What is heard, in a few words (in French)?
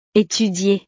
"étudier", frances; Estudiar